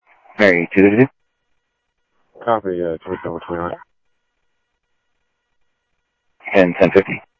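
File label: Sheriff West County, scanner audio trunk; ThompsonPeakScot; MCSO - WEST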